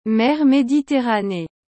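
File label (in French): "mer Mediterranee", French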